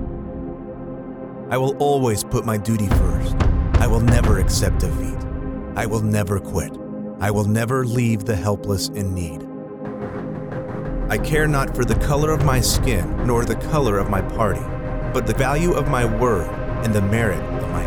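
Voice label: us dramatic, American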